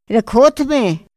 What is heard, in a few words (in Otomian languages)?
ra kjodme, Otomí; Días de la semana; INPI